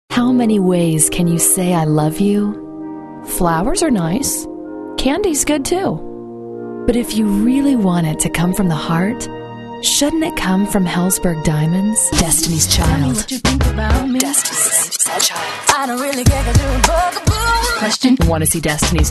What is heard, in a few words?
American Female